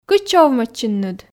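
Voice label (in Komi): кытчӧ овмӧдчинныд, Komi, female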